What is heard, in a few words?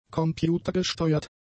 "computergesteuert", German; adjectives